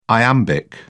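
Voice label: "iambic", British English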